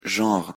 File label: pronunciation fr "genre"